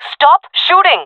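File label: taking fire friendly, female voice; player vo; Counter Strike Model Pack; CS:GO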